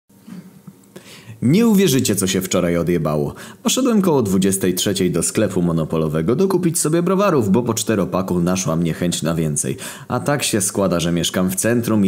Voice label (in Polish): Próba mikrofonu